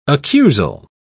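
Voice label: "accusal", British English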